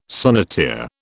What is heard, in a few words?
"sonneteer", British